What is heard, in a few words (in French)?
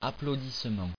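"applaudissement" French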